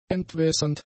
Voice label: "entwässernd", German; adjectives